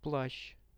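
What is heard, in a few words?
"raincoat", Russian; плащ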